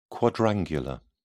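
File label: "quadrangular", English, British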